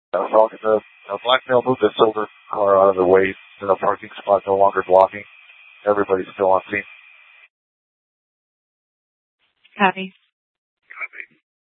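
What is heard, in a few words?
police dispatch radio, scanner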